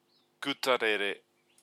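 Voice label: "kutarere", Māori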